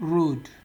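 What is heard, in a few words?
"road", Nigerian Pidgin, male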